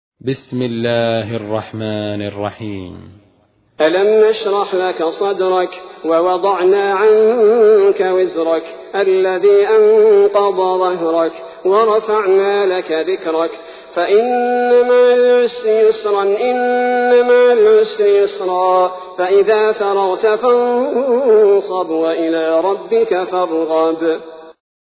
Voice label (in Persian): ترتیل سوره انشراح; الثبیتی